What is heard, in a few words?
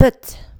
"put", english